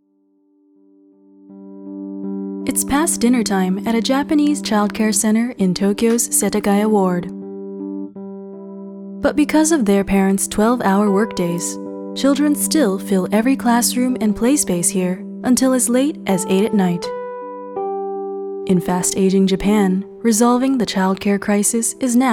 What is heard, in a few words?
news